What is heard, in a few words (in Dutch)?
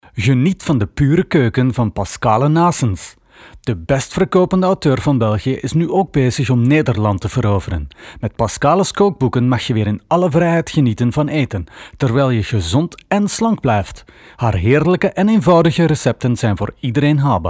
Language Vlaams commercial